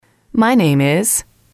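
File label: my name is, English, female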